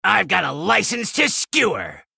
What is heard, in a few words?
male gnome threaten